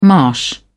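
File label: "marsh", British English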